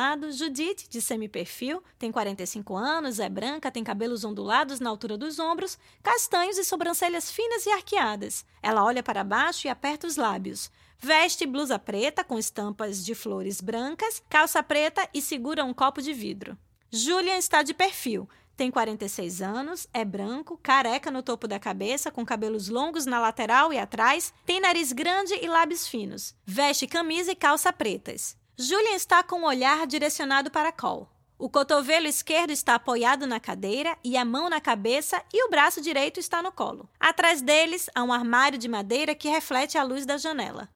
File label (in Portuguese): Entrevista, Audiodescrição; 1964-1985